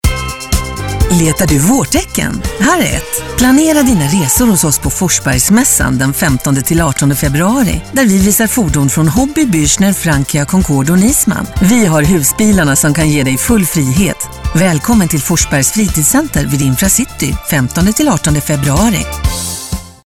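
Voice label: Swedish commercial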